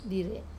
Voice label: "dir", tooth, Wamesa